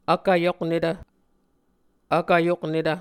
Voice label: "vkvyoknetv", Muscogee